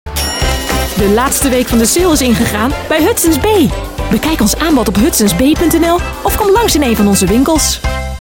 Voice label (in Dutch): Stemdemo Commercieel